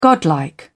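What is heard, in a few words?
"godlike", British English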